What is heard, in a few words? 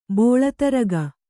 "ಬೋಳತರಗ", kannada